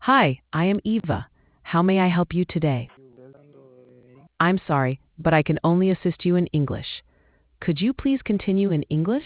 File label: conversation